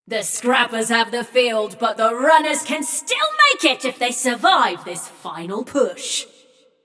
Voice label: Scrappers Lead, Announcer; Flux; Scraprun

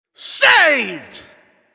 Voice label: Announcer Goalie Save

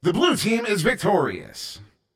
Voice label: Wins Blue Team, Announcer

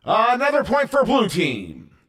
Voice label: Point Blue, Announcer

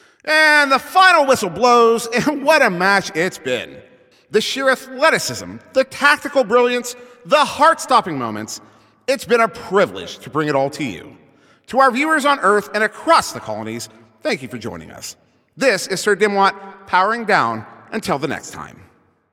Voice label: Announcer Game Ends

